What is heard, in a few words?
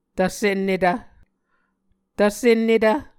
"tvsennetv", Muscogee